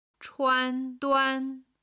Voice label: "chuan duan", chinese